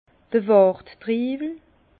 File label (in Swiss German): "wart triiwel", Bas Rhin; vin